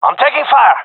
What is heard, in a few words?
taking fire, gendarmerie male; vo